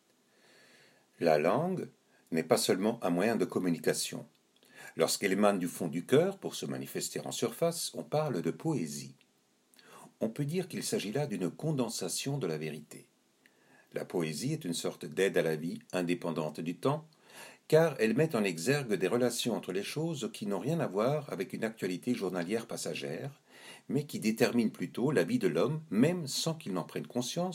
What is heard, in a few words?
Voicereel francais